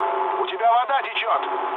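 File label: Russian damaged water mood low, speech; War Thunder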